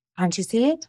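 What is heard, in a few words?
Voice Acting es, female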